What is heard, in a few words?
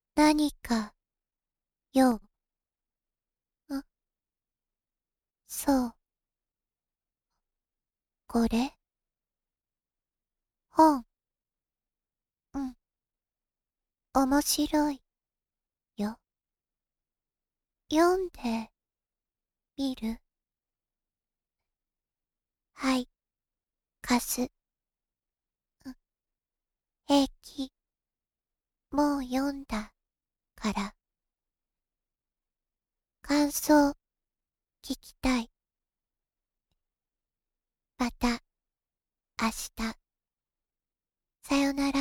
female